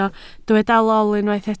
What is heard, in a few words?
"lol", Welsh